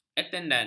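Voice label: "atendan", Malay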